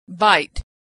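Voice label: "bite", American English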